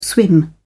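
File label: "swim", British English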